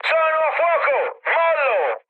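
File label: Italian on fire mood high, speech; War Thunder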